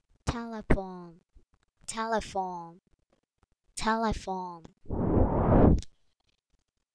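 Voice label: "telephone", spoken word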